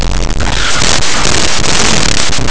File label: "chicharron", africades; Laboratori de fonetica